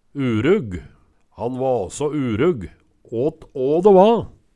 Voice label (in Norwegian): urugg, Numedalsmål